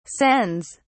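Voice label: "sends", English, British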